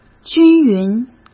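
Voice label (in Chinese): "均匀", Mandarin Chinese; chinese-flashcards; hsk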